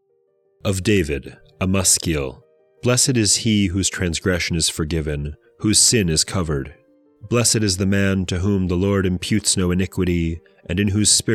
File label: poetry and prayer psalm english